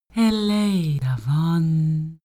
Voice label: female talk elfish